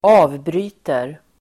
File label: "avbryter", Swedish